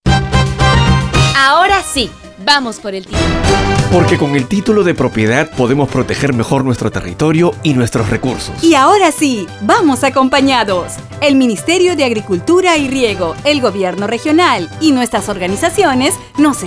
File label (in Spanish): spot campaña general